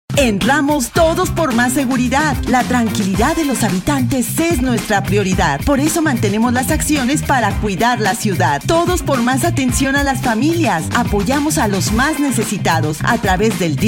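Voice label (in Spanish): Demostración institucional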